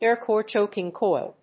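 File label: "air-core choking coil", English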